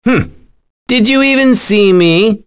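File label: Vox Victory, voices heroes English; kingsraid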